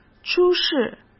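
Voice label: "出事", Mandarin Chinese; chinese-flashcards; hsk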